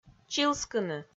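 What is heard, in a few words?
"чилскыны", Komi-Zyrian, female; kpv audio dict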